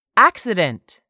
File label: "accident", English; 3000 words